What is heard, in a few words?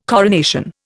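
"coronation", Indian English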